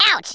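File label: "ouch" spoken, Redneck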